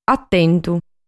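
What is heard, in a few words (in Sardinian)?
"Atentu", Sardinian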